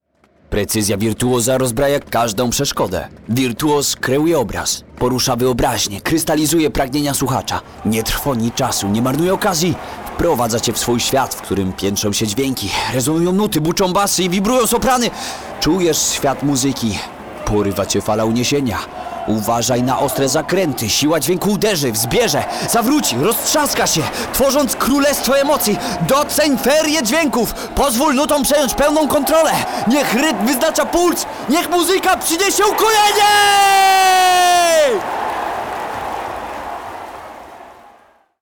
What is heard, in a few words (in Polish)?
komentator; wirtuoz